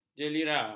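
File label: "jelira", Malay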